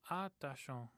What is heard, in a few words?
"atashon", omaha language